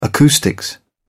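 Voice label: "acoustics", British English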